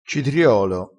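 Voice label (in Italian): "citriolo", dialettale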